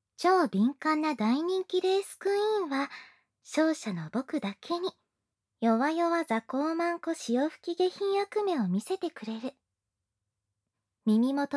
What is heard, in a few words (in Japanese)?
タイトルコール, ASMR